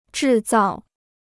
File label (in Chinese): "制造", Chinese